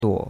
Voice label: "duo3", chinois; Cours de Chinois

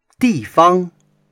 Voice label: "di4fang1", chinois

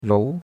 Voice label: "rou2", chinois; Cours-de-Chinois

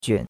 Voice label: "juan3", chinois; Cours de Chinois

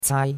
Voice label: "cai1", chinois; Cours-de-Chinois

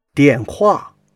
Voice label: "dian4hua4", chinois

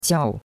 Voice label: "qiao4", chinois; Cours-de-Chinois

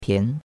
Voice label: "pian2", chinois; Cours-de-Chinois

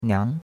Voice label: "niang2", chinois; Cours-de-Chinois

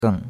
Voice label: "geng3", chinois; Cours-de-Chinois

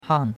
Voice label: "hang4", chinois; Cours-de-Chinois

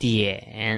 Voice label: "dian3", chinois

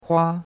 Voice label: "hua1", chinois; Cours de Chinois

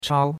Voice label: "chao1", chinois; Cours-de-Chinois

